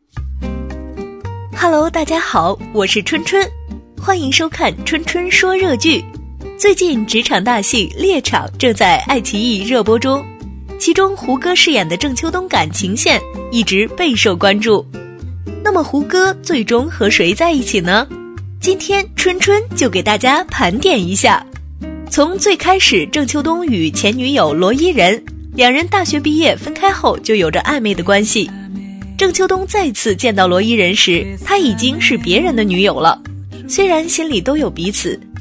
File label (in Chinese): 女声栏目类; 春春说热剧